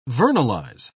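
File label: "vernalize", British English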